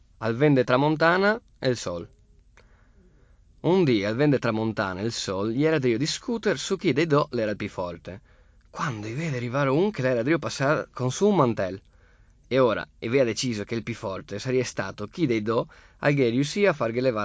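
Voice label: Italian dialect, male, Godega di Sant'Urbano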